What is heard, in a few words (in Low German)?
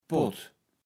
"Pott", Platt